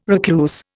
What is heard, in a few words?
"recluse", Indian